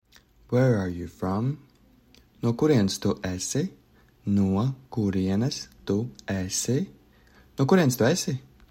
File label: Where are you from, Latvian; the talking latvian phrasebook